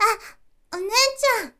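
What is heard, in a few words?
"oneetyann", Japanese, girl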